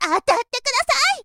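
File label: "atattekudasai", Japanese, girl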